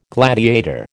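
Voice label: "gladiator", American English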